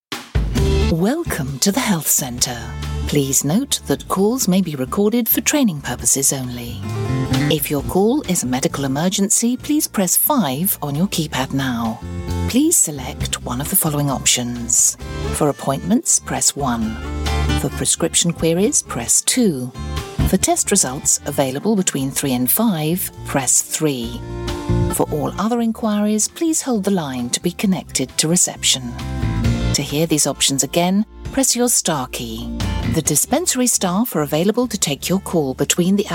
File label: telephone IVR